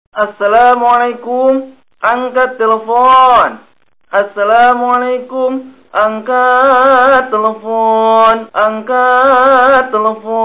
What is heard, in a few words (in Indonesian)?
suara angkat telpon efek suara lucu assalamualaikum angkat